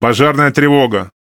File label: RU Speech Alarms Fire Alarm; World Of Warships; USSR Ovechkin